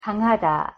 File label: "강하다", Korean